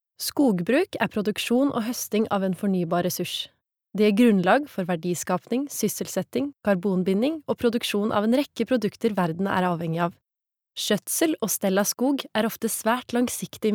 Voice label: no informativ, norwegian, female

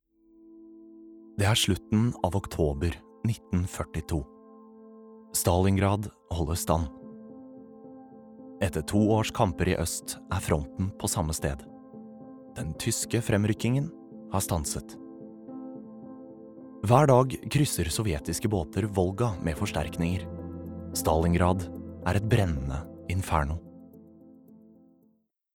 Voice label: no dokumentar

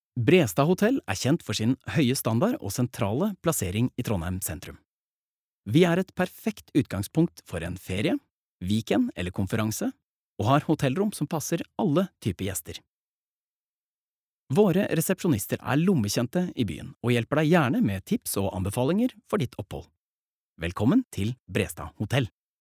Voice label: no informativ, norwegian, male

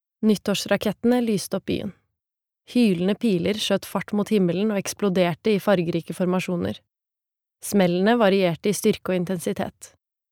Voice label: Norwegian lydbok, female

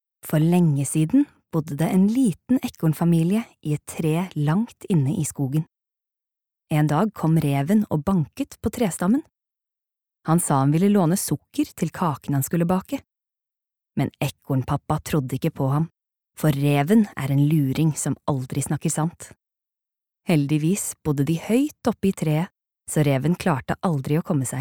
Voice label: no fortellende

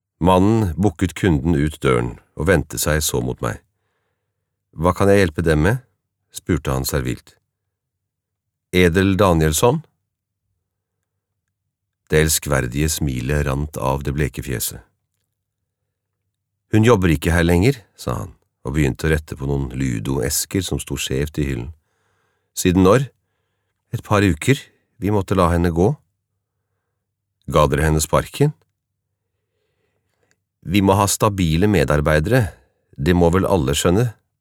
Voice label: no lydbok

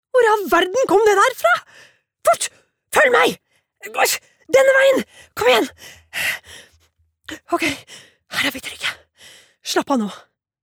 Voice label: dubbing, norsk, kvinne

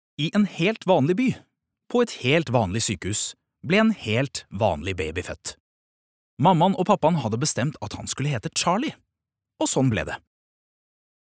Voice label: Norwegian barnebok, male

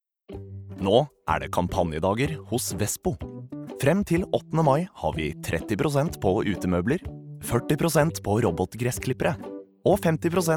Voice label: no reklame

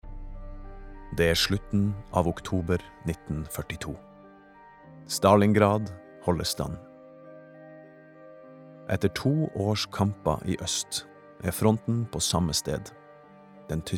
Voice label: no dokumentar

